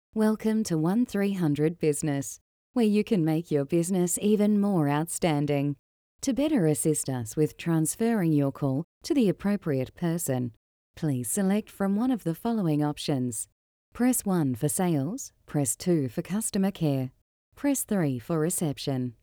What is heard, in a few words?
Soft Business